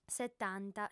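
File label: "setanta", lingua sarda, female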